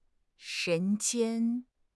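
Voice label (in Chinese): "人间", Chinese; HSK6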